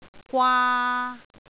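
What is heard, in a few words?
"gwaa3", Cantonese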